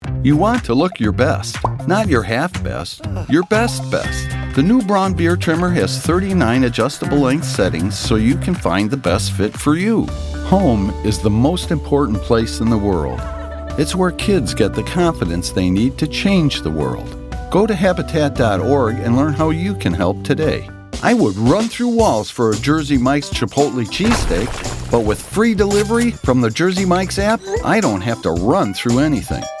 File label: Commercial